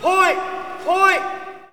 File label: Hockey Male Point; Hockey Vocal Sounds